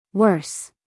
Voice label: "worse", us female; 1000 hours